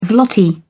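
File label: "zloty", British English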